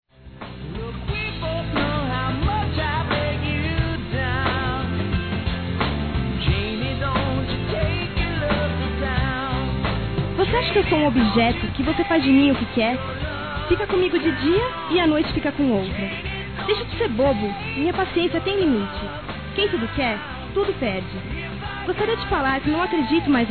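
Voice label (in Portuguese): Ciume female; Ciúmes; Telemensagens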